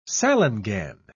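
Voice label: "salangane", British English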